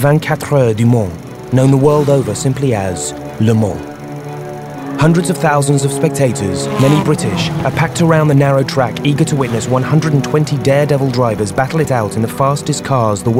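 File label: motor racing documentary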